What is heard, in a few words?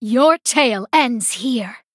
Female High Elf Attack